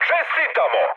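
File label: Serbian player killed enemy mood high, speech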